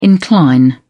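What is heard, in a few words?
"incline", British English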